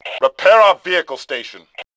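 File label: repair vehicle, Male; Tribes2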